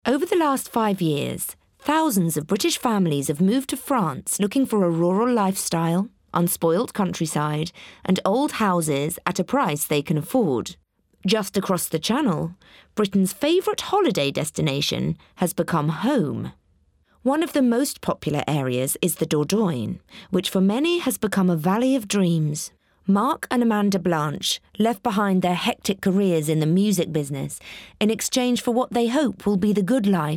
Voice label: narration